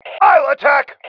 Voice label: I attack, male

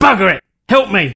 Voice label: Help, male, voice commands; svencoop

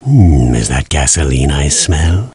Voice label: Chat; svencoop; voice commands

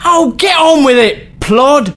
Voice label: Taunt, male; svencoop

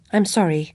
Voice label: sorry, voice commands; svencoop; Alice

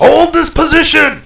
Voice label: voice hold; firearms; radio

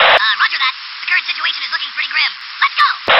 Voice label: radio message; svencoop; sc toysoldiers

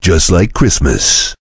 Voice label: cheer; svencoop; voice commands; Duke

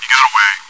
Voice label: chitchat; svencoop

